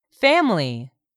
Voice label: "family", English; 3000 words

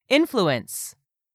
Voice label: "influence", English; 3000 words

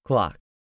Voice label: "clock", English; 3000 words

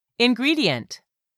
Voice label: "INGREDIENT", english; 3000 words

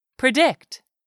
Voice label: "predict", English; 3000 words

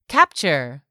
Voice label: "CAPTURE", english; 3000 words

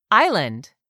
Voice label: "island", English; 3000 words

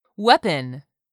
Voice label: "weapon", English word; 3000 words

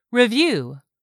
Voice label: "review", english; 3000 words

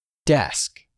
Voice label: "DESK", English; 3000 words

